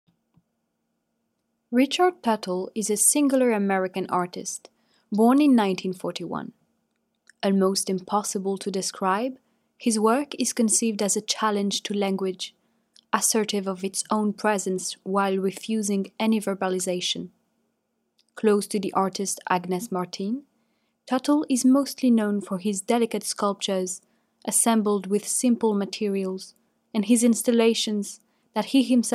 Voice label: GB adulte